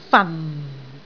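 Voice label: "fan4", Cantonese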